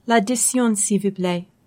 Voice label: "l addition", French